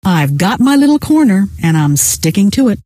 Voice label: little corner, scientist female